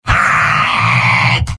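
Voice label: help me, robot; soldier; mvm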